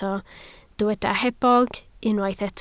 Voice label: "hebog", Welsh